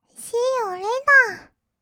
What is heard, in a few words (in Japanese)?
シーユーレーター, kawaii voice; ASMR; 挨拶; 1200 kawaii voice!!